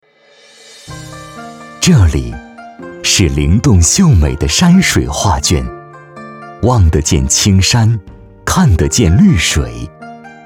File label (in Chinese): 男, 景区, 诗情画意; 黄塘